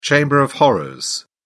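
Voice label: "chamber of horrors", British English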